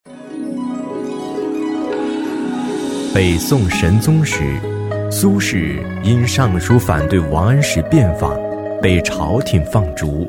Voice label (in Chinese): 纪录片配音; 苏轼